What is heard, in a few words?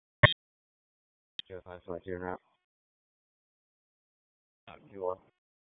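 Raleigh Police Southeast District Dispatch, p25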